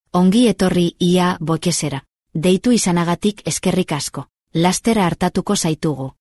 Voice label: tono natural, Voz mujer con I.A., Locución en euskera y tono natural; Seraphina

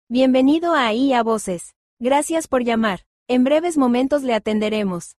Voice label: Voz mujer con I.A., Locución en español latino y profesional; Alejandra

